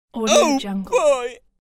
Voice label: excited male oh boy shout